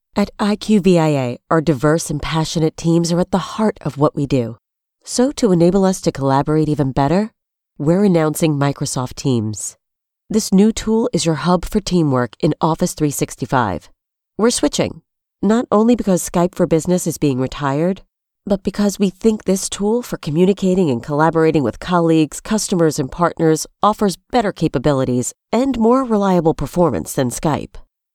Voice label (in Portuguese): ingles